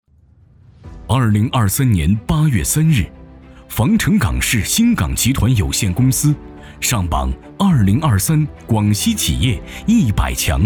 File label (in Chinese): 男 专题 党建; 兴港党建